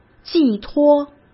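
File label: "寄托", Mandarin Chinese; hsk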